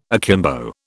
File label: "akimbo", British